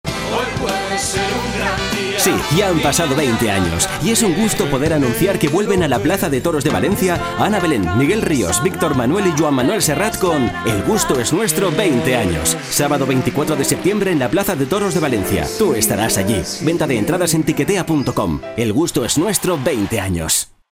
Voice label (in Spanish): comercial